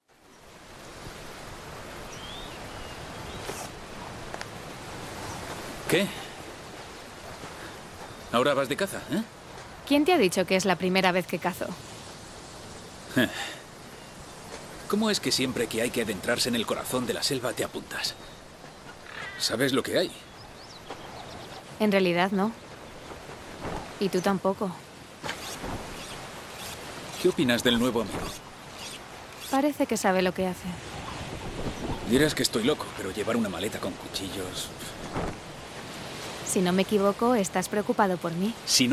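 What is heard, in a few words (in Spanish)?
doblaje España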